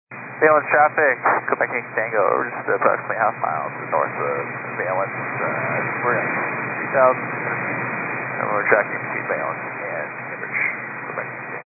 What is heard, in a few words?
122.925 MHz; scanner radio